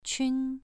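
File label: "qun", Mandarin Chinese syllable, second tone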